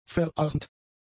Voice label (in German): "verarmt", German; adjectives